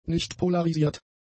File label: "nichtpolarisiert", German; adjectives